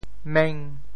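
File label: "meng5", Teochew; 冥; 潮州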